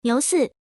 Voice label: female